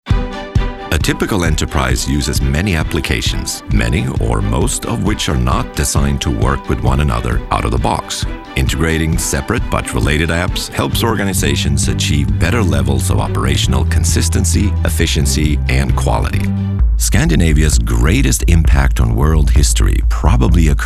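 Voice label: narration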